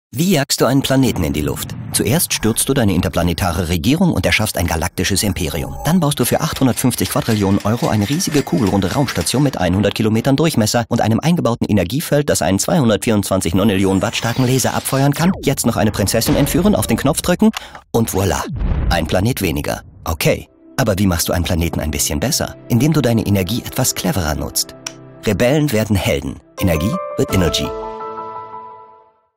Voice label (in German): Energisch; Fremdtake